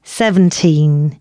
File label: announcements, female